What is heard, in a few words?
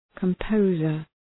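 "composer", English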